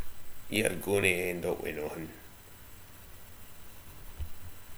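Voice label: "yer", slang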